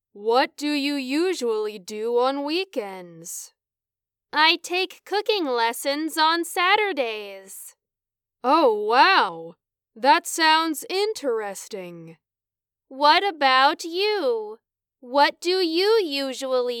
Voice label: Dialogue Semi Natural